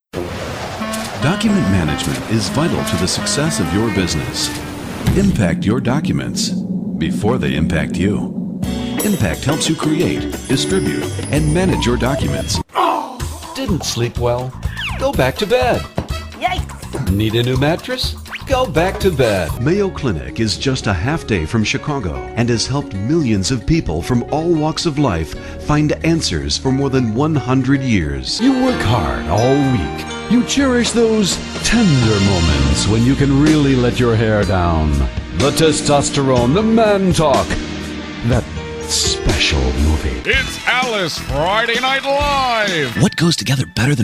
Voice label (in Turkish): Amerikan Ingilizcesi Erkek